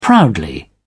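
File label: "proudly", British English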